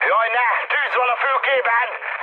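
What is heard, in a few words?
Hungarian on fire mood high, speech; War Thunder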